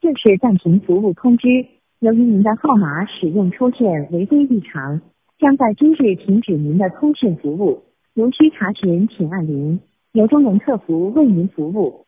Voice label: chinese voice mail